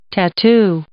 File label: "tattoo", English